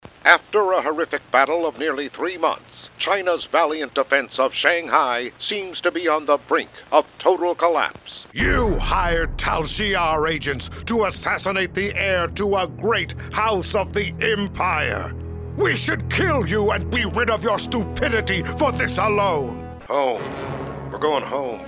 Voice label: men animation; Warm to fuzzy, bigger voice.

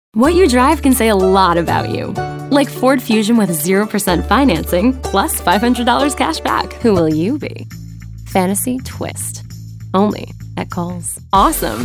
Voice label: women commercial; Conversational, Fresh, and Genuine All American Girl Next Door.

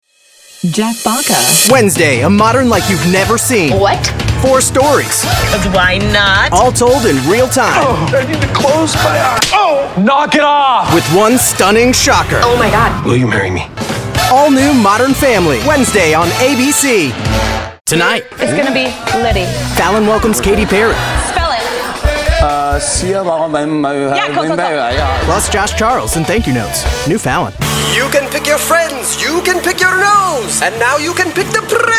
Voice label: men promos; Young and trustworthy. The guy next door with hip appeal.

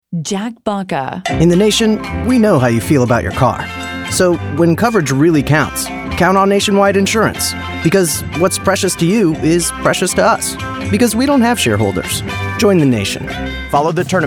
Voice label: men commercial; Young and trustworthy. The guy next door with hip appeal.

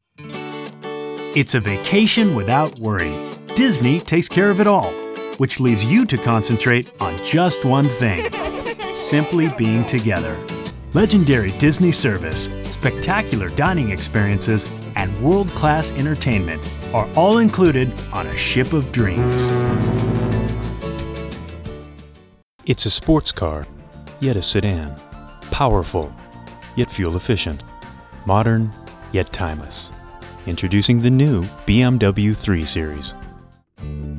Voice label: men commercial; Conversational and relatable. Clear, upbeat, and calming.

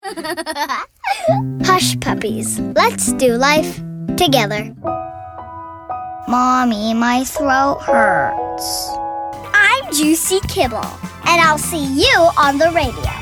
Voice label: kids commercial; Cute as a button and just as fun!

